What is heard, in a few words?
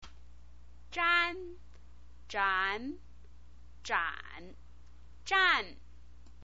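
"zhan", Mandarin Chinese pinyin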